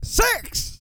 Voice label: COUNT, Vocal Planet, R&B & Dance, COUNTING; ILIO